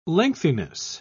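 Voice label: "lengthiness", British English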